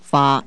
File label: flower, Cantonese